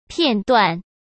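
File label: "片段", Mandarin Chinese; hsk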